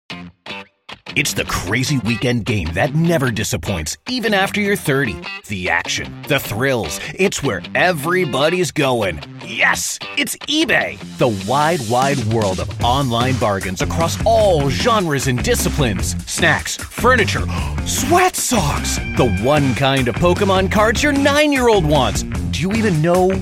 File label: Narration